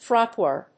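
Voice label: "frotteur", English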